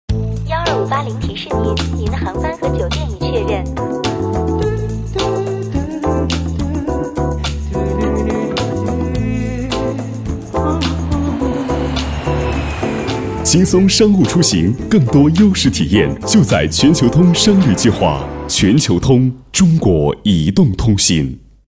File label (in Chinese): boy mobile, guanggao; Chinese